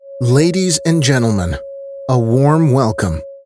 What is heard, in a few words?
EN M